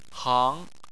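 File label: "hang", Chinese, second tone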